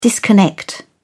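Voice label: "disconnect", English, British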